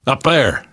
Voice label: context modifier calm, left4dead2 survivor voice namvet; source engine